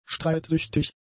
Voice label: "streitsüchtig", German; adjectives